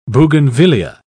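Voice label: "bougainvillaea", British English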